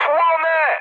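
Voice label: Polish on fire mood high; speech; War Thunder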